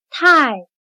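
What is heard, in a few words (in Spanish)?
"太", chino mandarin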